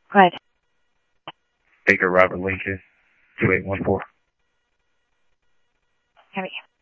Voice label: Mesa Simulcast, MESA PD, scanner audio

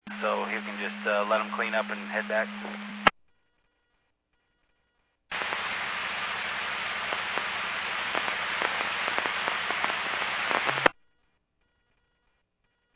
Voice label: Medevac, scanner; AirEvac PhxT

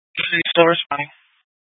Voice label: Fire Dispatch

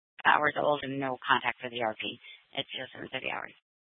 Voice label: Scottsdale PD Sth Dispatch, scanner

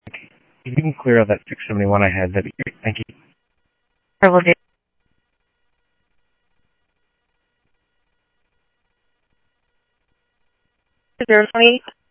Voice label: South Scottsdale Sheriff, scanner audio

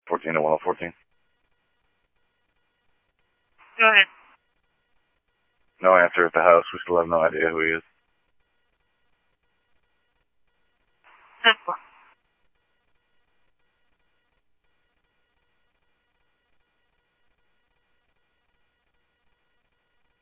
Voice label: Scottsdale Police, scanner

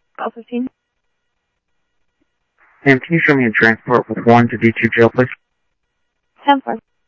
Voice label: PD Police, scanner audio

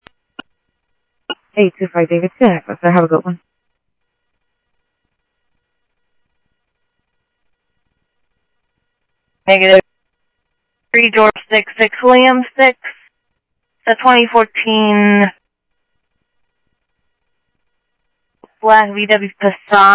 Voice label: Sheriff Central, scanner audio trunk; MCSO Central Sheriff-Central; ThompsonPeakScot

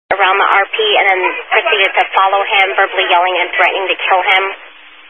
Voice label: Highway Patrol, Phoenix Metro East Area, scanner

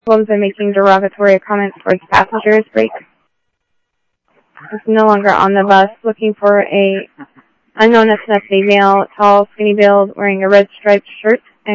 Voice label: Police, scanner audio; SCTSDLE PD - PD2 ScottsdalePolice; ThompsonPeakHigh

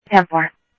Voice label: Info Sheriff Common, scanner audio trunk; ThompsonPeakScot

